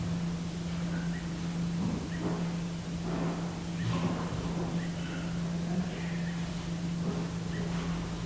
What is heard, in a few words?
ominous whisper; shelton hotel